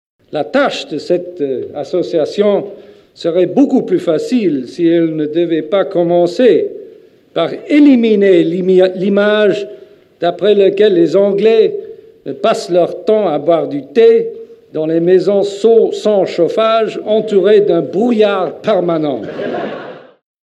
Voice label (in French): accent intensif; Prince Philip d'Angleterre